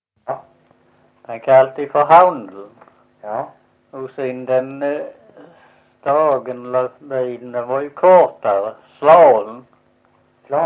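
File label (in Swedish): Visseltofta Skåne, svenska sagor dialekter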